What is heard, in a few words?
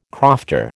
"crofter", American